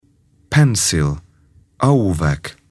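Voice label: "pencil", English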